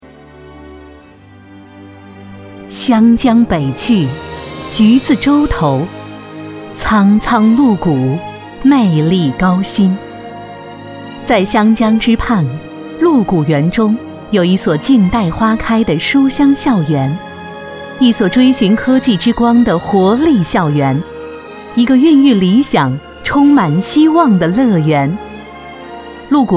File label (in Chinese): 女 解说词 饱满大气; 湘江学校